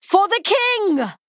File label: Female Human Attack